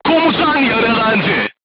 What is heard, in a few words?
voice message commander wounded, crew tanks turkish